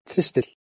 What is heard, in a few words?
"thistil", Proto Germanic